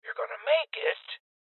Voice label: Voices Heal Male Old; rebel cops 2019

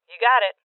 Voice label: Confirmation Female; Rebel Cops

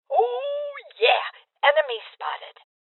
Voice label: Voices Enemy Spotted Female Old

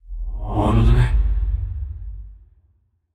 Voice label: confused; aragami

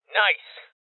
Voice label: Voices Loot Collect Male Young

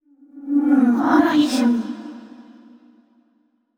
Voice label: VO thinking; yamiko

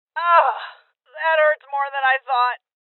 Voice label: Voices Armor Shot Female

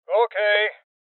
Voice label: Voices Confirmation Male Old; rebel cops 2019 gamerip

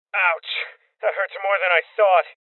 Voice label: Voices Armor Shot Male Young; rebel cops 2019 gamerip

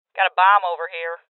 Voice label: Voices Bomb Spotted Female; rebel cops 2019 gamerip

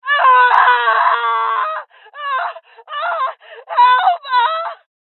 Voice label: Voices Injury Female

